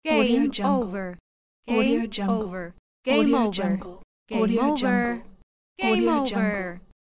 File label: girl voice game over